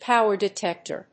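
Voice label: "power detector", English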